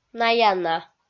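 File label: "наяна", Komi-Zyrian, female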